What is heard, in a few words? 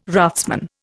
"raftsman", Indian